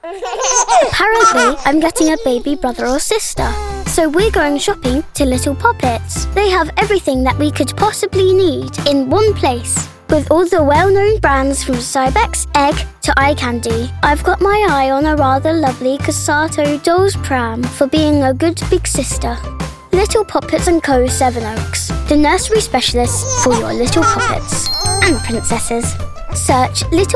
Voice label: english british; une voix authentiquement britannique originaire de Londres, au Royaume-Uni

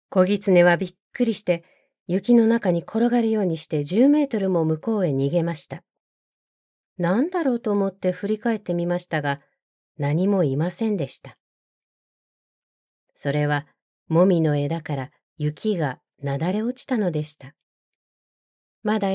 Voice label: japanese audiobooks; Japanese voice actor

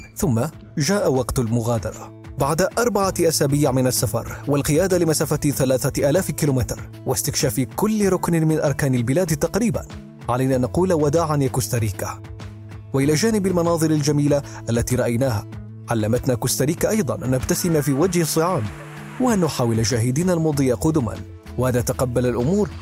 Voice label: arabic narration

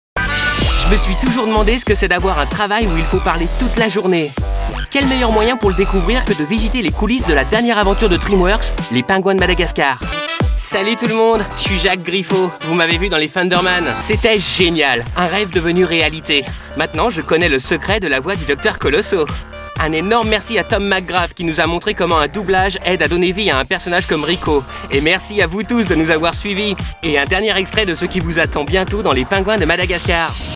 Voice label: french promos

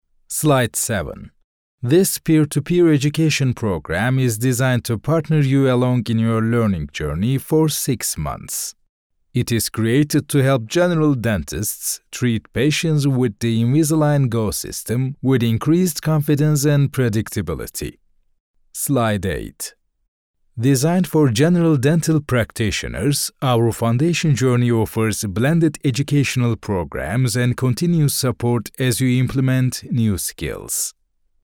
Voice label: english

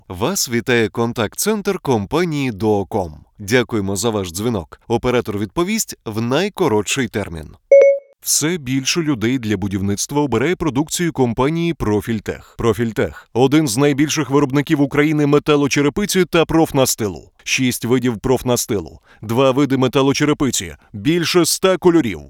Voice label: ukrainian narration

